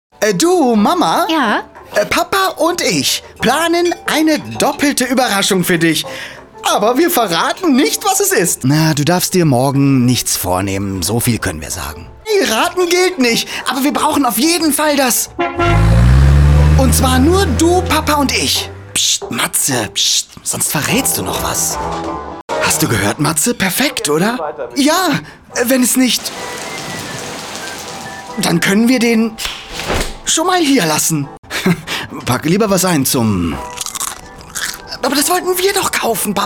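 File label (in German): Hoerspiel, professioneller Sprecher; Tonies Ueberraschung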